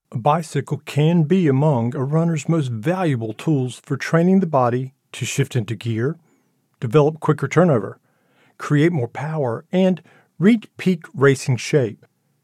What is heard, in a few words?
e Learner, voice